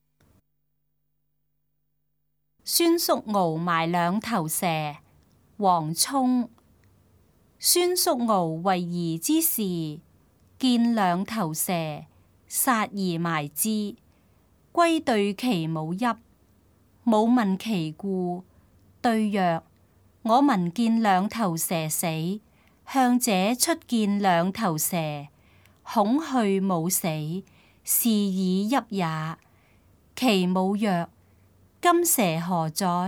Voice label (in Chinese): cantonese; 孫叔敖埋兩頭蛇; 粵語